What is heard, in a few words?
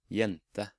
"Jente", Norwegian